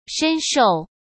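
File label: "深受", Mandarin Chinese; chinese flashcards; hsk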